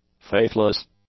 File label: "faithless", english; adjectives